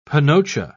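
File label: "panocha", British English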